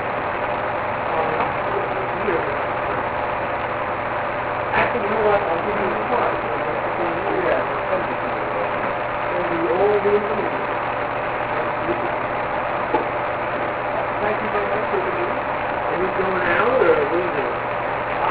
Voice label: Booth Voice, NCC Theatre